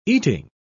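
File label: "eating", British English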